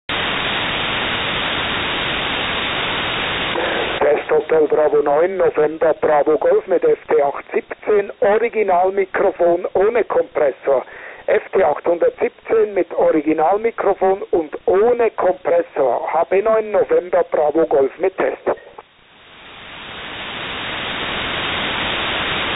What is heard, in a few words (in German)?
original mic ohne kompressor, ft-817